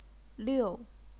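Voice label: "liu", fourth tone, chinese pinyin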